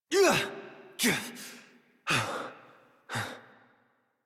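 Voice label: horse dismount adult, English; Warframe; Duviri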